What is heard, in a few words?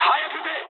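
Japanese attack position mood high; War Thunder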